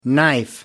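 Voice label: "knife", English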